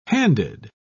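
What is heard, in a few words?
"handed", British English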